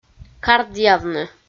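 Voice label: "кардъявны", Komi, female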